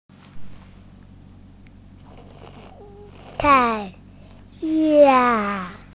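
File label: "sun", Mandarin Chinese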